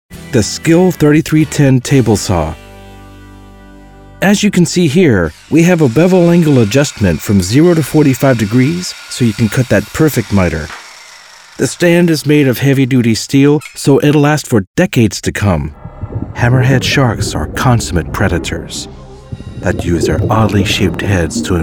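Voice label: narration, Male Voice Actor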